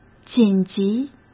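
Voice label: "紧急", Mandarin Chinese; chinese-flashcards; hsk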